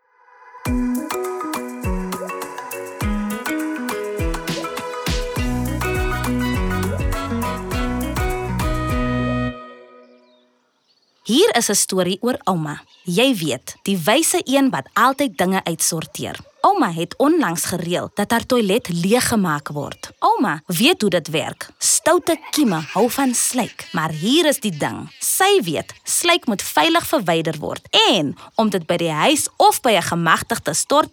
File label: Afrikaans, Audio Soundbites; Sanitation; DWS